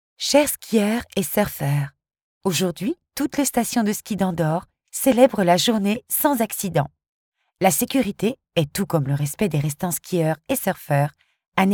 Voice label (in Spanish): megafonia